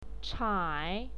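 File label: "chai", Mandarin Chinese, third tone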